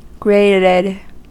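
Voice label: "grated", American English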